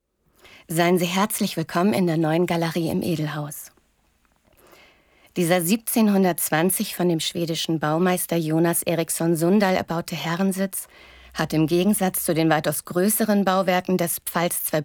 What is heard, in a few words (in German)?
AUDIOGUIDE